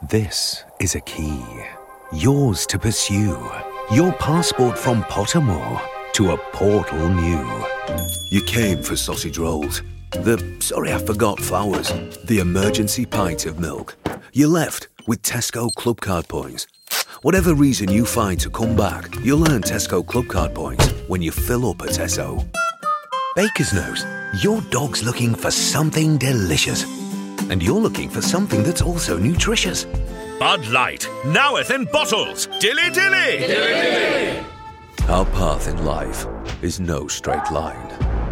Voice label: Commercial